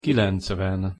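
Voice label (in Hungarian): "kilencven", Hungarian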